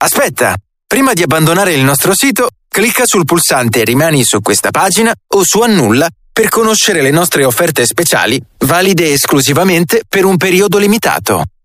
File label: IVR Italian Dry; J Factory